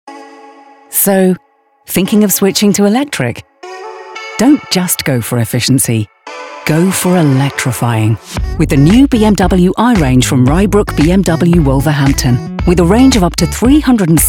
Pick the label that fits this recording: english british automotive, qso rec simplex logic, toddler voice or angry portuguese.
english british automotive